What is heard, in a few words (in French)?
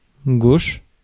"gauche", Mots francais